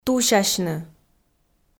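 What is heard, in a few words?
"тусясьны", Komi, female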